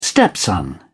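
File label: "stepson", British English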